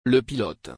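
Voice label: "Le pilote", French; Le pilote (m.); خلبان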